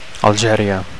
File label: "Algeria", Catalan